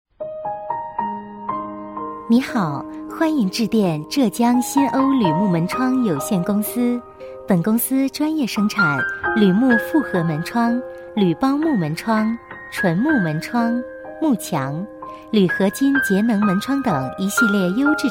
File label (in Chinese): 女 彩铃 合音, 国语女声配音; 浙江新欧铝木门窗